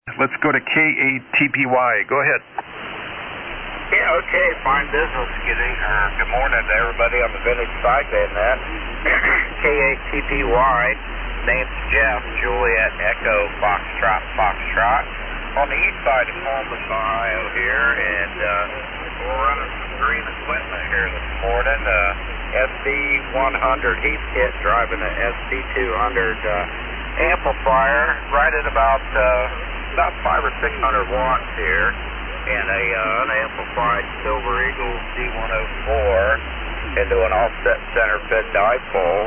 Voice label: Heathkit SB-100 D-104 Mic & SB-200 Amp; Columbus, OH.